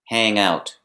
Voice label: "hang out", phrasal verb